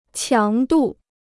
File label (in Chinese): "强度", Chinese